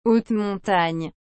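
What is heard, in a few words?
"haute montagne", French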